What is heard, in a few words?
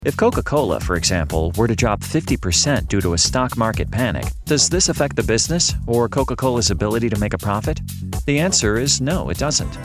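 American English, male, narration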